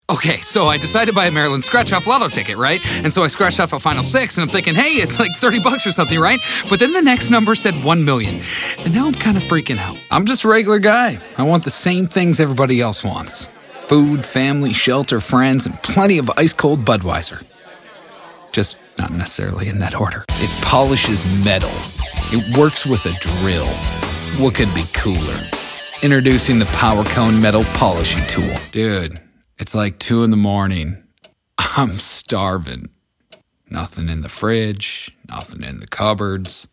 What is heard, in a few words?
Commercial